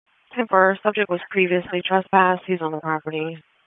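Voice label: Raleigh Police Southeast District Dispatch, p25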